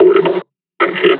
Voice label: injured; combine charger; Half Life Alyx